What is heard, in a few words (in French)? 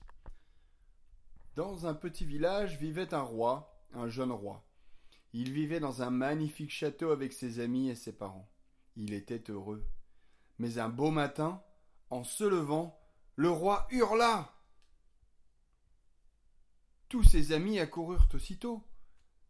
narration